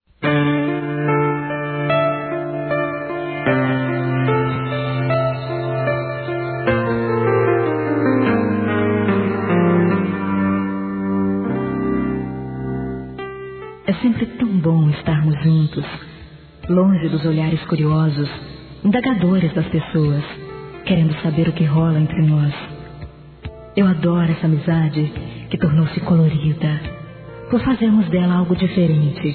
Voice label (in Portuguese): Telemensagens Amor Fem; Telemensagens 2017